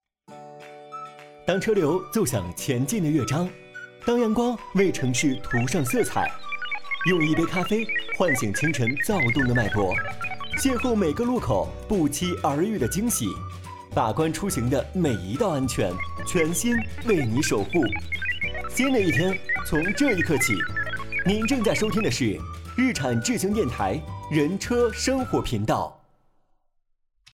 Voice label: 电台片头

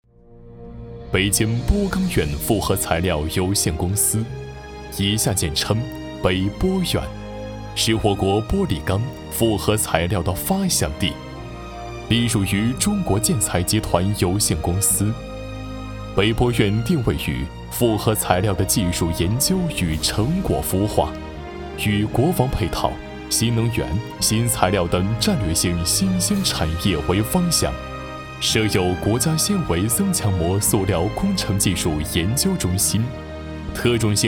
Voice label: 大气宣传; 北玻院

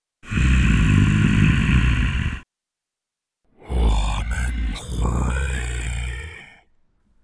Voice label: 怪物声线

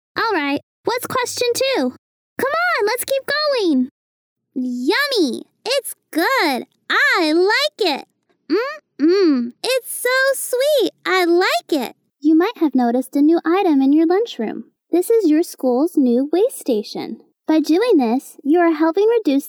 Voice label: 美式女童

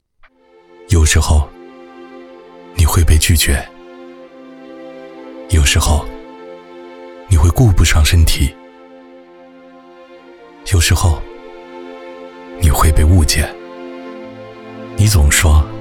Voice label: 走心旁白男

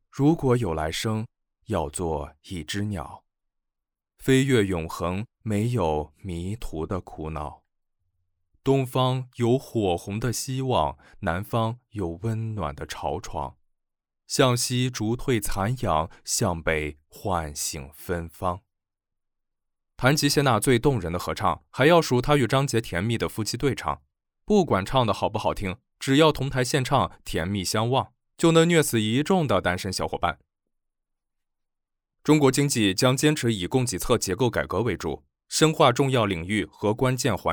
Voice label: 试音稿散文娱乐新闻

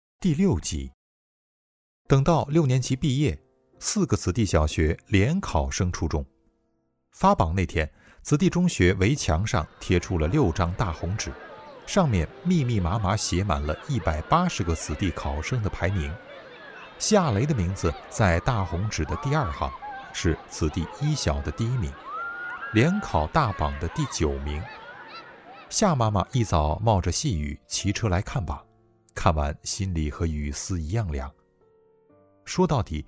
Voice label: 有声小说类片段

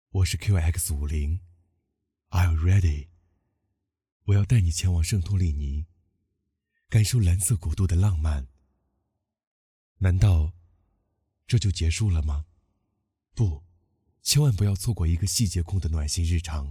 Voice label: 宣传 温暖有爱

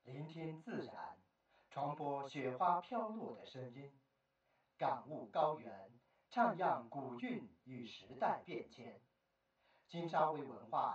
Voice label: 无背景

